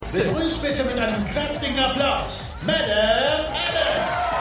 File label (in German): Ansager; Perfect Harmony